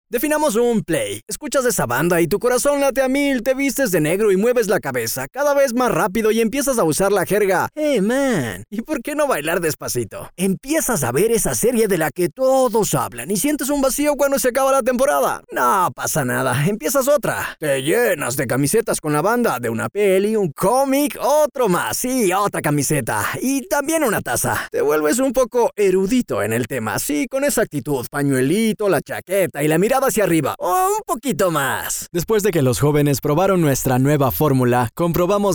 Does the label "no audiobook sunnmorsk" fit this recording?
No